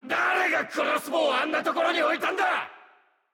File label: jp Voice Skill